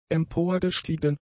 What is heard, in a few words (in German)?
"emporgestiegen", german; adjectives